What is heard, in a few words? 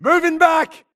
grunt grenade response; imc; Titanfall 2